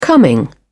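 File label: "coming", British English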